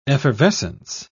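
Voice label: "effervescency", British English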